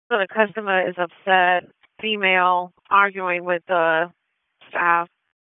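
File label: Police District Dispatch, p25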